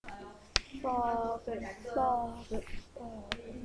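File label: "bog", spoken word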